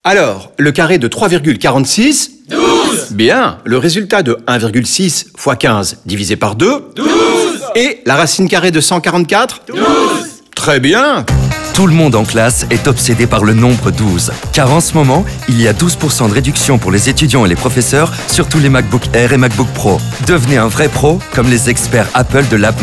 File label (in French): Radio FR; Back To School; 30s; Lab9